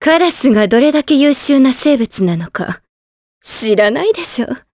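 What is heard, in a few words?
vox dia Japanese; Maria; kingsraid; jp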